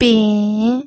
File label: "bin3", pinyin